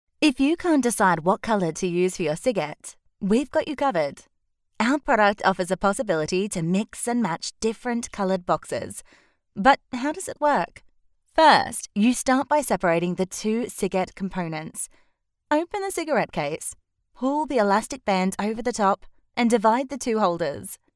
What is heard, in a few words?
locutora australiana english australian voiceover